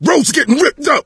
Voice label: hunter pounced, voice; Left 4 Dead 2; coach

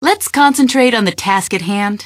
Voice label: answer, female; Garry's Mod; hl2

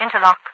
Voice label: "interlock", radiovoice; Garry's Mod; hl2; overwatch

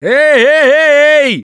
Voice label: lost call, russian; Gambler

